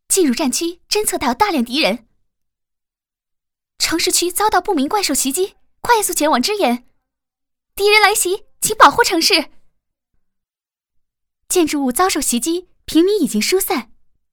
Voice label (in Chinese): 女 游戏CG; 奥特曼